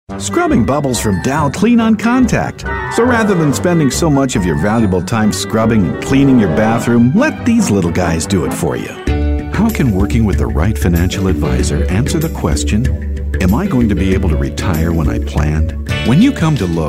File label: Commercial